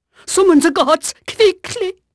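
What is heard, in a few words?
Dutch female, voice; Pirates Of The Caribbean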